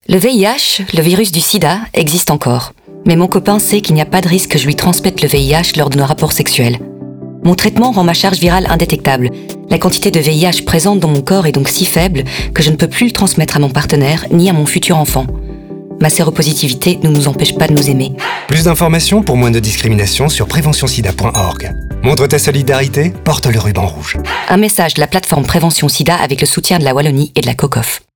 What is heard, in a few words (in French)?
Spot Radio hetero